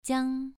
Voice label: "jiang2", pronunciation

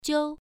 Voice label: "jiu1", pronunciation

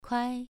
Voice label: "kuai2", pronunciation

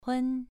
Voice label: "hun2", pronunciation

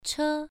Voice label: "che1", pronunciation

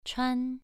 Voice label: "chuan2", pronunciation

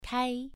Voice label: "kai2", pronunciation

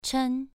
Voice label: "chen2", pronunciation

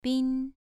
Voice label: "bin2", pronunciation

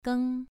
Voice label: "geng2", pronunciation

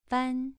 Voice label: "fan2", pronunciation

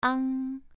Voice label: "ang2", pronunciation

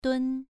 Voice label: "dun2", pronunciation

